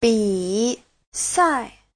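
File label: "bǐsài", Mandarin Chinese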